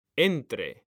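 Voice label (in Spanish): "entre", Spanish; mekanta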